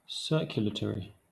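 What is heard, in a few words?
"circulatory", British English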